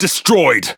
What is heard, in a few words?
Speech Pilots Torpedo Destroy, EN GB; World Of Warships